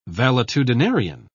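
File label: "valetudinarian", British English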